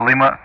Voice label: "Lima", Speech ENG; Ka-50; DCS

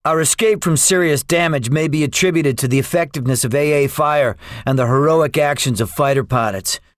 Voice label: debriefing, english; War Thunder

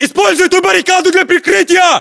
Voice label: order cover barricade, ru; localized english

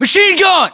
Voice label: US threat mg, battlechatter, english; localized english

